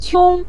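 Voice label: "qiong", Mandarin Chinese syllable, neutral tone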